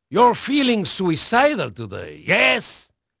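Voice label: Portuguese male, voice; Pirates Of The Caribbean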